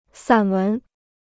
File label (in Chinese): "散文", Chinese